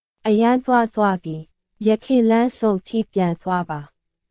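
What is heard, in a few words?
Myanmar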